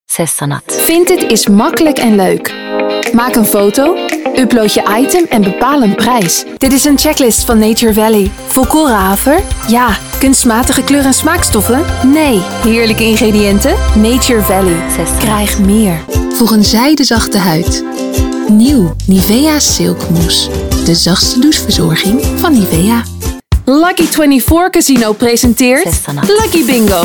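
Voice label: Dutch, seslendirme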